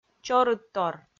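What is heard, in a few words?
"чорыдтор", Komi, female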